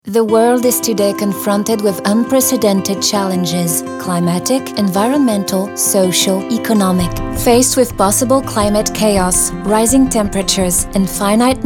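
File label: instit English; Orano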